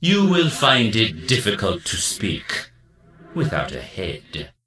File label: Death Knight Male Low Pissed